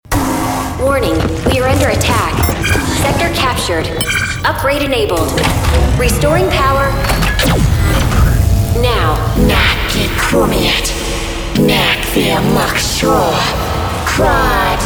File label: Female Voiceover, game